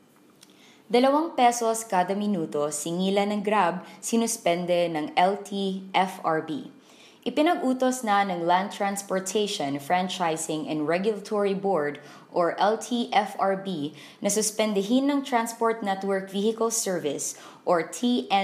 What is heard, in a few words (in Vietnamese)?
Giọng nữ, tiếng Filipino/Tagalog; tiếng Filipino/Tagalog người bản địa